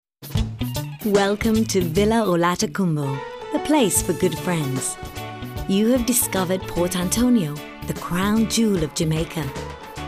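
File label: british, voice-over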